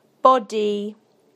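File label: "body", British English; PictureDictionary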